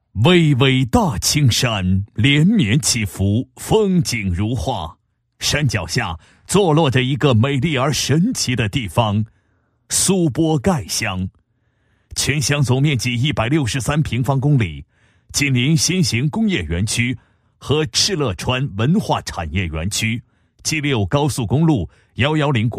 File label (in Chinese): 男-工作汇报-某乡“十个全覆盖”工程普惠民生, 配音案例